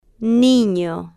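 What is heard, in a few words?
"nino", slow, Spanish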